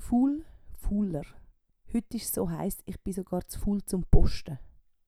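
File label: "fuul", Swiss German vocabulary; Wortschatz Kapitel 8; Kapitel 8 Zusatzwortschatz 3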